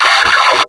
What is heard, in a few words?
"backup!", combine soldier; hl2